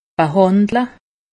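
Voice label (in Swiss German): "behandle", Alsatian; medecine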